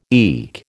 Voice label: "eke", American